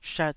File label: "shat2", taiwanese